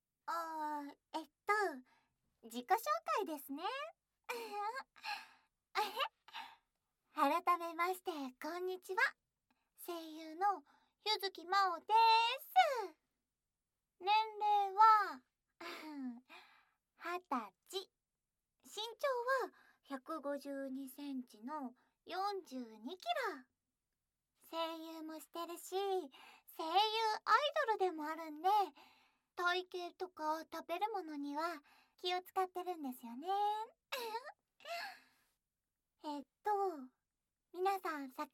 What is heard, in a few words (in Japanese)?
自己紹介とご挨拶, ASMR